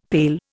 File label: "pail", Indian; Dictionary